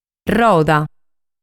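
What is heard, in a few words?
"Roda", Sardinian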